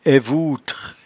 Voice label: "aivouetre", patois jura

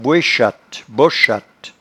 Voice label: "boechatte", patois jura

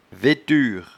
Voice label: "veture", patois jura

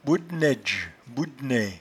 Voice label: "boudnaidge", patois jura

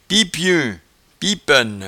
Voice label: "piepeuin", patois jura

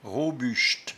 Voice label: "robuchte", patois jura

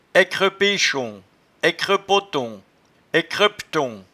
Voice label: "aiccrepechon", patois jura

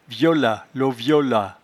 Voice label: "violatloviolat", patois jura

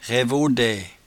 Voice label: "raivaudaie", patois jura

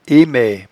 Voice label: "emaie", patois jura

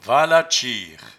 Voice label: "valatdchire", patois jura

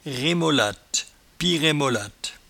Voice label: "remolatte", patois jura

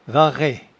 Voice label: "varret", patois jura

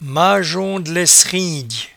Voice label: "majondlaisrindye", patois jura

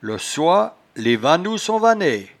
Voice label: "losoilesvannous", patois jura

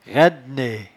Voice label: "raindenaie", patois jura

